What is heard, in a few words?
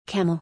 "Camel", English; color-in-english